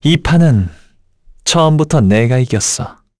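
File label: Vox Skill, Korean; Esker; kingsraid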